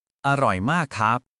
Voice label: Thai, basic Thai phrases